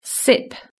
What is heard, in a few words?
"sip", british english